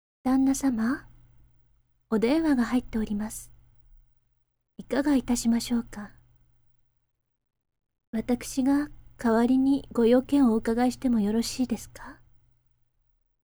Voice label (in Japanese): tel woman